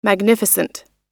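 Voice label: "magnificent", English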